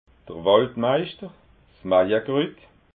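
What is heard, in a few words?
"waldmeischter", Alsatian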